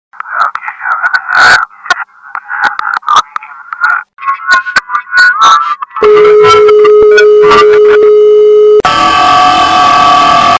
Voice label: horror sound scary voices on the phone